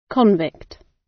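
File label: "convict", British; Crime and punishment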